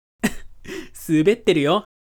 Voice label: man